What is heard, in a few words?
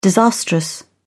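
"disastrous", British English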